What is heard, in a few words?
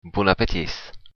bon appetit, occitan